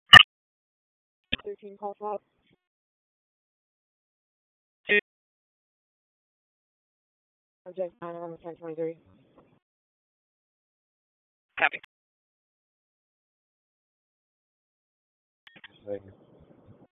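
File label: Police District Dispatch; Raleigh Police Northeast District Disp; wake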